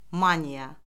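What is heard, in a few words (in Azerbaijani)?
"maniya", Azerbaijani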